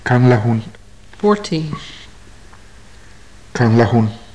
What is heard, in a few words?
numbers, maya